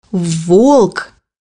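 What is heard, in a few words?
"wolf", Russian; bukvar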